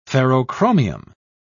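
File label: "ferrochromium", English, British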